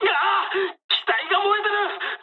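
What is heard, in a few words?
on fire mood med, speech japanese; War Thunder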